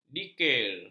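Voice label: "dikir", Malay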